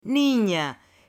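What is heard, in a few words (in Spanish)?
"nina", Spanish, male; AppLenguaje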